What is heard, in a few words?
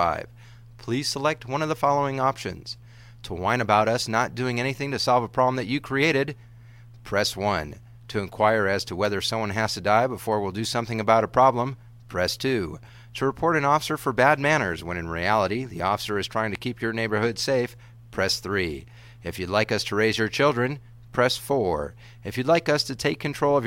police voice mail